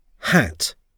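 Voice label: "hat", English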